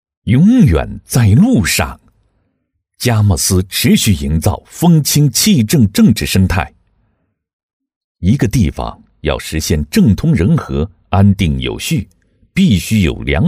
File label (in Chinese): 男 政府汇报专题 大气沉稳; 稳重底蕴; 大气浑厚